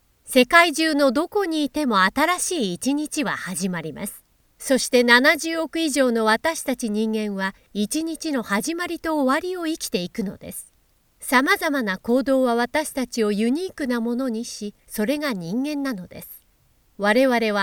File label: Formal